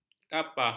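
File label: "kapah", Malay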